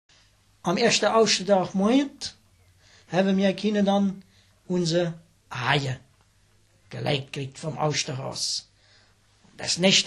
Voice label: Hessisch; Sprachbsp; Mitteldeutsch

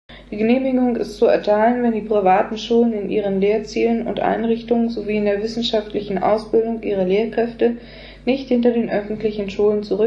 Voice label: Schriftsprache

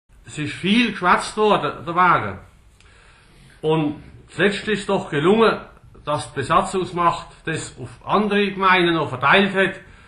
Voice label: Niederallemannisch, Oberdeutsch

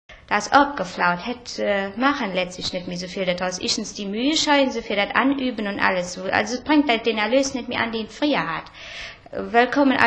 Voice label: Moselfraenkisch, Mitteldeutsch

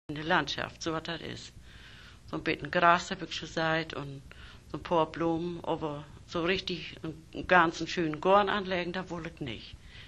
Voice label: Nordniedersaechsisch, Niederdeutsch

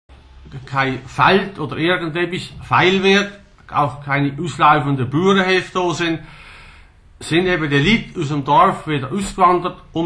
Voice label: Niederallemannisch, Oberdeutsch

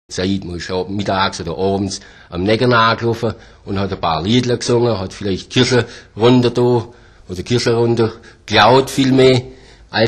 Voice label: Schwaebisch; Nordallemannisch; Oberdeutsch

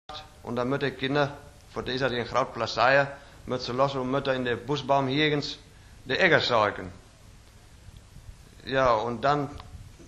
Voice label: Westfaelisch; Niederdeutsch

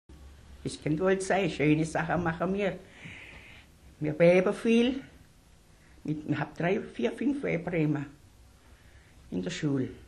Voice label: Suedfraenkisch; Oberdeutsch

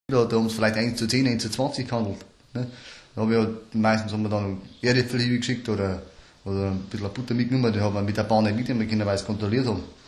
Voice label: Nordbairisch Amberg; Oberdeutsch

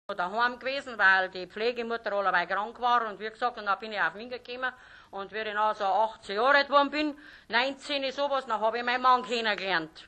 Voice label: Mittelbairisch, Oberdeutsch

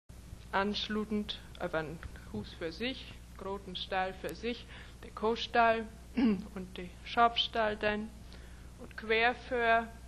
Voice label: Mecklenburgisch; Niederdeutsch

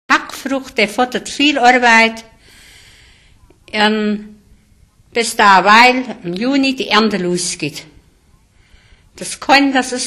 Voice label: Rheinfraenkisch; Mitteldeutsch

